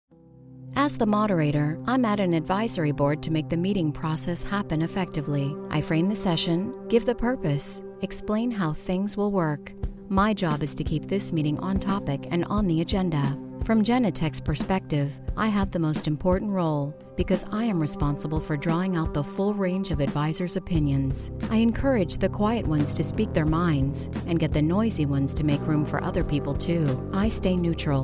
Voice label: elearning